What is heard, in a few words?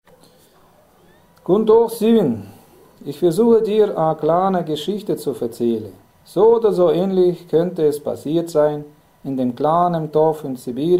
Nieder Monjou Dialect